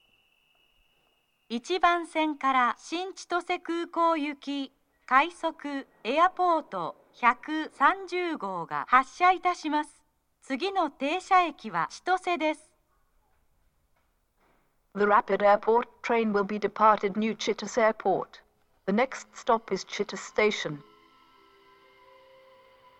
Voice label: rapid airport, station; eniwa; new-chitose-airport; jr-hokkaido